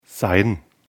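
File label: "sein", German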